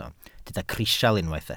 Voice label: "crisial", welsh